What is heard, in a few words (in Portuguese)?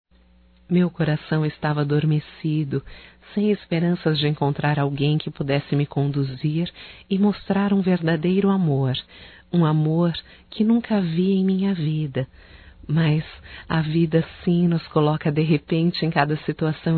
ROMANTICA(F), Românticas, fem